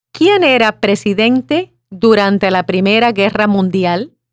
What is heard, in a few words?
Spanish